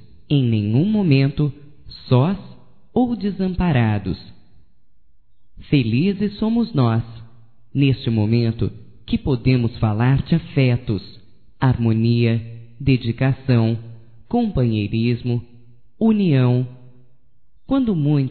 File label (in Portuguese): AMIZADE PARA AMIGA, fem; Amizades; Telemensagens 2017